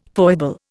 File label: "foible", Indian